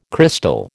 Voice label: "crystal", American

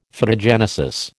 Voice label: "Phytogenesis", American

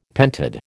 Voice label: "pentad", American

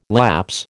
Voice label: "lapse", American

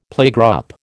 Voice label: "playgroup", American

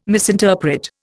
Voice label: "misinterpret", Indian

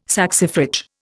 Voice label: "saxifrage", Indian

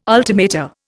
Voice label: "ultimata", Indian

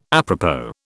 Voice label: "apropos", British

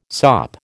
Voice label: "sop", American

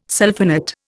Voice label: "sulphonate", Indian

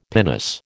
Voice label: "pinnace", American

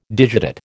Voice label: "digitate", American

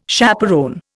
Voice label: "chaperon", Indian

